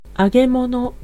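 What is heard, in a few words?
Japanese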